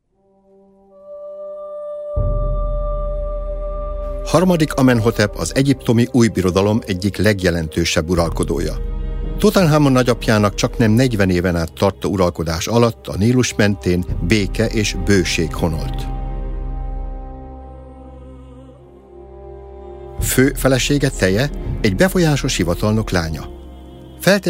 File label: audioguide; tutanchamun; LOFT VOICES